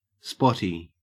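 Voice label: "spotty", Australian English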